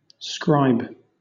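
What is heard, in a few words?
"scribe", British English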